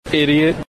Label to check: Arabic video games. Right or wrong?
Wrong